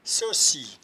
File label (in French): "coci", patois jura